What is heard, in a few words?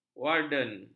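"warden", Malay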